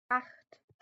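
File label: "acht", German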